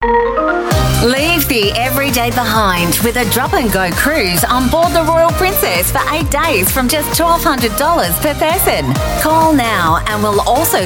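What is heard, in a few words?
AU English, Australian English; Princess-Cruises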